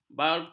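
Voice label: "barakuda", Malay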